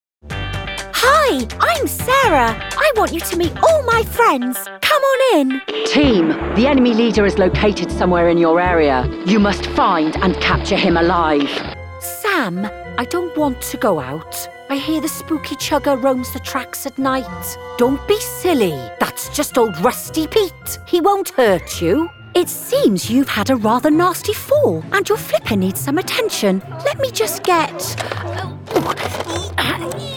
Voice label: female english welsh multiple accents animation compilation